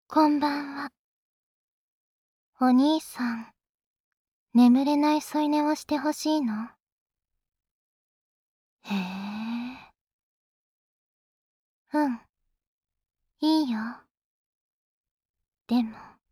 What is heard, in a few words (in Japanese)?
添い寝の案内, ASMR